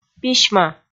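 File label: "письмӧ", Komi, female; audio dict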